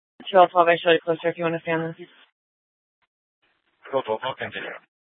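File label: police dispatch, Scottsdale PD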